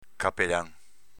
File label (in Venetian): "capelan", Veneto